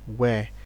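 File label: "where", Nigerian Pidgin, male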